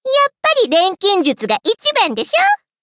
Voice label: levelup ja; Cookie Run

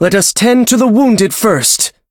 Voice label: victory, en; cookie run

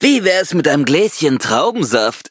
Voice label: loading, de; Cookie Run

